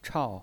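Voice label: "chao", Mandarin Chinese pinyin voice, fourth tone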